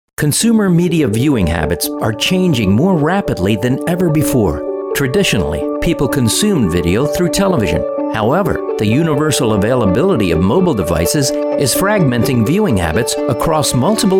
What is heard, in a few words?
Voice Over